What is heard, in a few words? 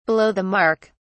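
"below the mark", British English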